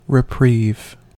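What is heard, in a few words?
"reprieve", US English; pronunciations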